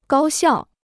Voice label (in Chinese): "高效", Chinese